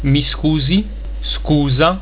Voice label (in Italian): "scusa", italiaans